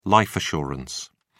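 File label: "life assurance", British English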